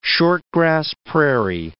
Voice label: "short-grass prairie", English